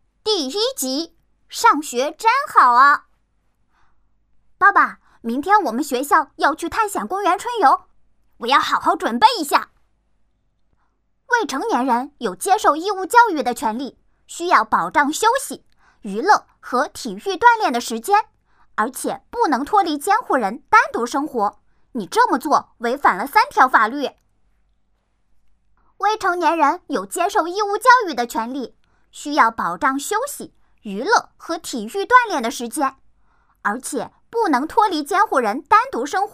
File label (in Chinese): 多角色配音